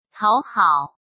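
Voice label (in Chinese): "讨好", Mandarin Chinese; hsk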